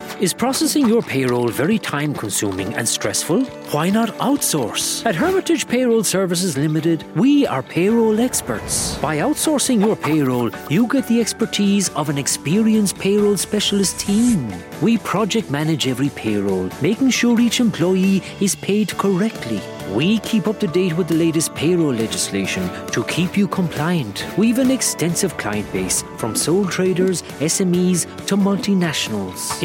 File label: Explainer demo; Hermitage